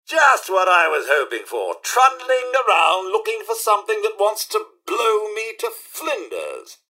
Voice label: generic robot combat to lost; mrhandy; npc

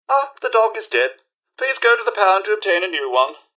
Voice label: robot talk; mrhandy

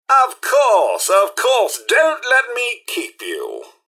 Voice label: goodbye, robot; mrhandy

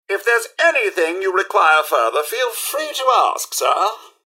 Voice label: robots dialog goodbye; mrhandy; npc

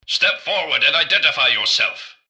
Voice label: generic robot alert idle; npc; mrgutsy

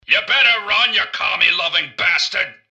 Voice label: generic robot lost idle; mrgutsy; npc

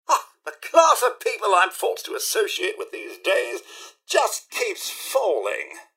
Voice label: generic robot pickpocket; mrhandy; npc

